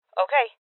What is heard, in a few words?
Confirmation Female; rebel cops 2019; Voices